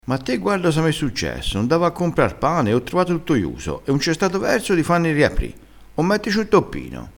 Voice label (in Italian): "mettici 1 toppino", crespinese